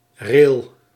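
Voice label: "reel", Dutch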